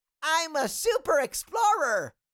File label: Cartoon little child voice